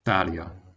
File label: "talja", Proto Germanic